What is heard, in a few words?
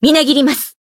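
healed, Japanese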